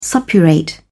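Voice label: "suppurate", British English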